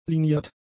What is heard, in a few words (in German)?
"liniert", German; adjectives